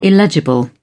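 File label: "illegible", British English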